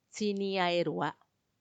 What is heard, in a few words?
"tsiniaaerua", thirtyone, macuiltianguis; treinta y uno